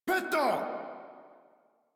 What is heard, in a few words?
Attack, Modular Corpus Male; Warframe